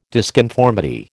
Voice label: "disconformity", American